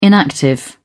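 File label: "inactive", British English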